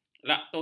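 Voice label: "laktosa", Malay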